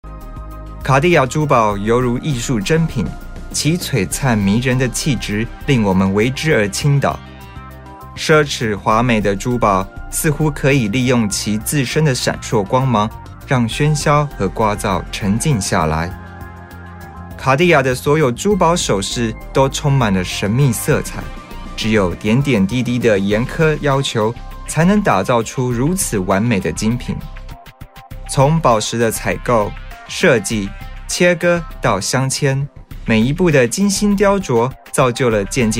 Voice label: male chinese mandarin corporate